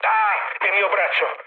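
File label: Italian damaged pilot mood low, speech; War Thunder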